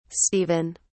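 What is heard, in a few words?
"stephen", British English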